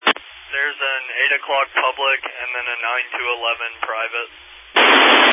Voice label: Family Radio Service (FRS)